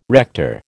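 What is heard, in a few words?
"rector", American English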